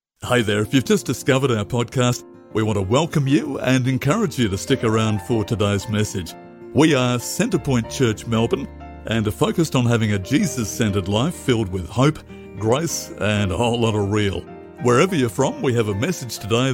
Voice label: 外籍男英 外语 美式英语 磁性

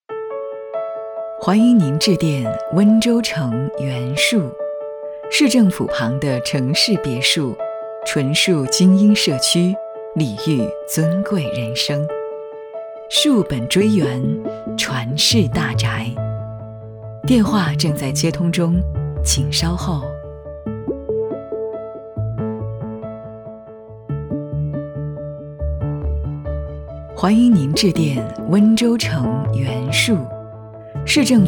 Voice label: 彩铃 楼盘, 女

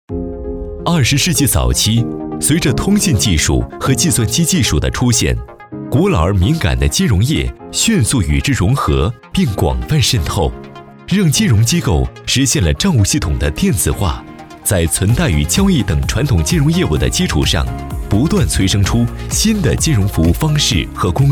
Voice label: 男国 专题 企业 金融科技 科技

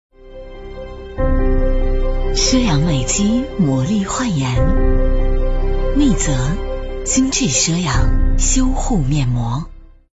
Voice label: 广告 化妆品 知性; CCTV蜜泽

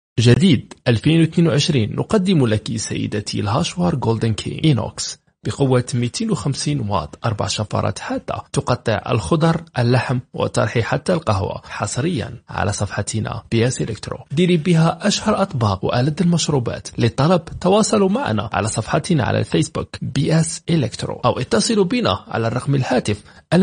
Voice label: 阿拉伯语男 外语 小语种

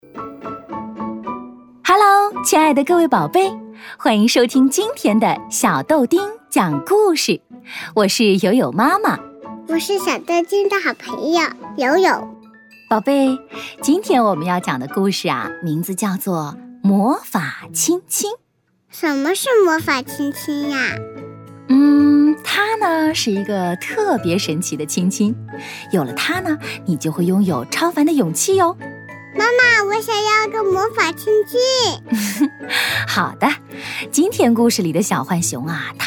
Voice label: 多媒体 儿童故事 亲子故事, 女国; 亲子故事魔法亲亲

